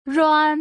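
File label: "ruan", Putonghua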